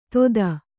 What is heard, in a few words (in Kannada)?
"ತೊದ", Kannada